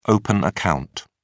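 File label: "open account", British English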